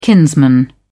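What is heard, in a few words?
"kinsman", British English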